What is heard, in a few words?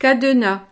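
"cadenas", French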